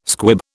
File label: "squib", British